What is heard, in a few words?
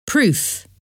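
"proof", English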